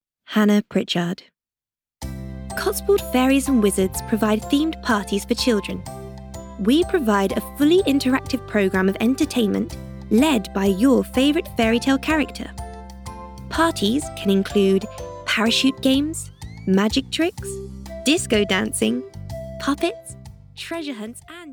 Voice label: corporate voice reel